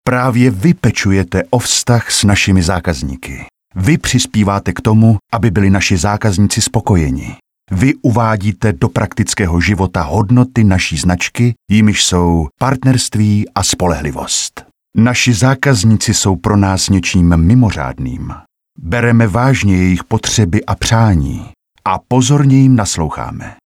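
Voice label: Czech e-learning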